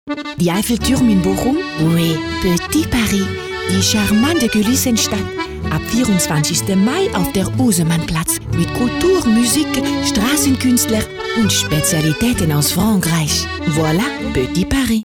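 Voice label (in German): Akzent Französisch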